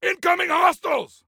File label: Warn Enemies, Soldier Male; Soldier Male 03